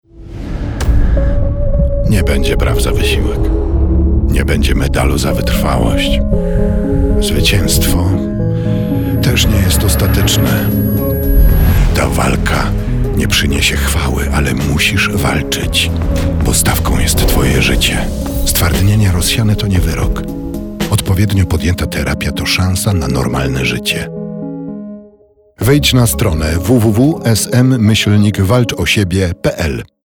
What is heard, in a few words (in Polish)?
spot radiowy